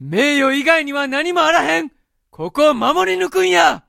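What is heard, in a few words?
vox chatter japanese; ming yu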